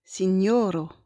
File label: "sinjoro", esperanto; vortoj